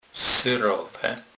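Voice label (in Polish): "serowe", Kurpie dialect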